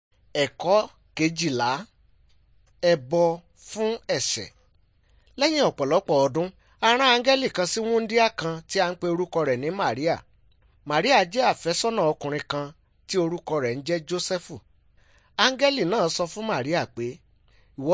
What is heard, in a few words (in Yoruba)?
good news yoruba